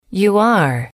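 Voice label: you are temporary formal, female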